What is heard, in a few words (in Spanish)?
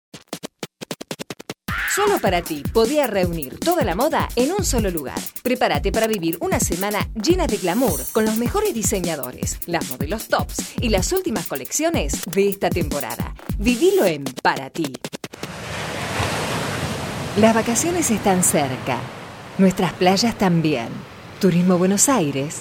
Locutora; Mujer